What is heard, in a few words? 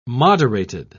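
"moderated", British English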